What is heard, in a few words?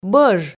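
"бӧж", Komi, female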